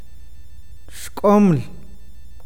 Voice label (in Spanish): corteza, awakateko